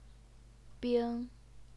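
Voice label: "bing", Mandarin Chinese, first tone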